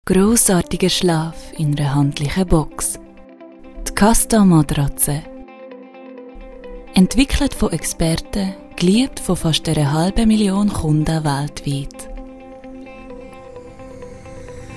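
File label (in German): Werbig Zurcherdeutsch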